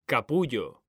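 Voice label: "CAPULLO", Spanish, male; mekanta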